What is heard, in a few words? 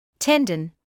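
"tendon", British English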